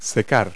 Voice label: "dry", verb; Multi-Lingual Talking Picture Dictionary